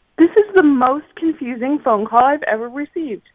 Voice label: this is the most confusing phone call ive ever received; PLA Ringtone Collection